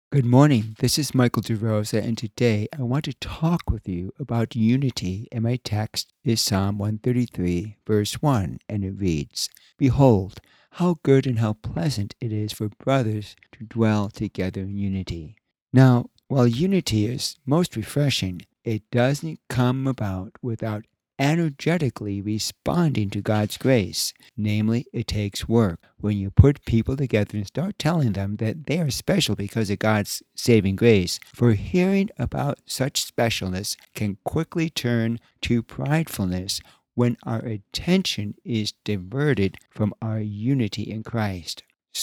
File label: Radio Sermonette; Psalm 133 1; Unity; unity is a work of grace